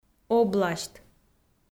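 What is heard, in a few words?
"обласьт" Komi-Zyrian, female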